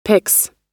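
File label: "picks", American English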